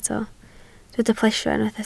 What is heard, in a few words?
"plesio", Welsh